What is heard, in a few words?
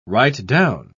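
"right-down", British English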